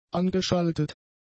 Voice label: "angeschaltet", German; adjectives

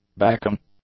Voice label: "backing", English; nouns

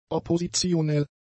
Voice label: "oppositionell", German; adjectives

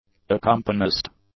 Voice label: "accompanist", English; nouns

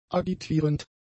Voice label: "agitierend", german; adjectives

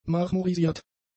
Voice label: "marmorisiert", German; adjectives

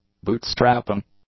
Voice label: "bootstrapping", English; nouns

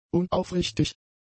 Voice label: "unaufrichtig", German; adjectives

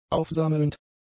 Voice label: "aufsammelnd", German; adjectives

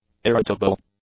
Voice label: "irritable", english; adjectives

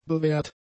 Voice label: "bewährt", German; adjectives

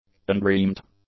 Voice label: "undreamt", English; adjectives

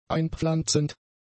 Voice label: "einpflanzend", German; adjectives

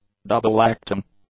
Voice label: "double-acting", English; adjectives

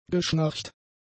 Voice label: "geschnarcht", German; adjectives

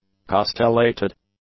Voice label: "castellated", English; adjectives

